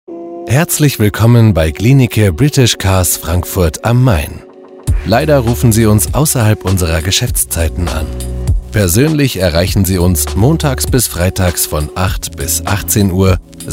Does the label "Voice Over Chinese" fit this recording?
No